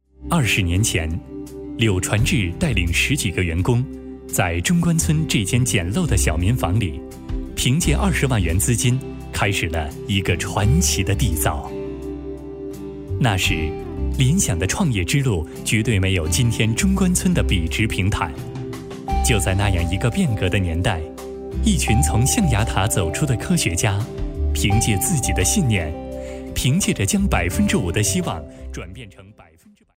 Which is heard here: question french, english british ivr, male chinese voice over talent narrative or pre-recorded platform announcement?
male chinese voice over talent narrative